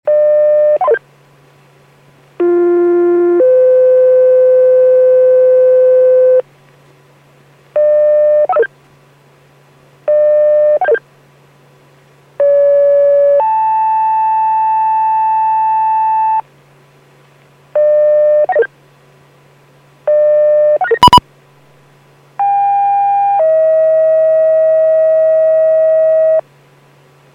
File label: PAGING